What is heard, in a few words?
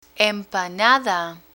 "empanada", Spanish